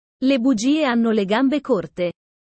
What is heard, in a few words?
italian sayings about life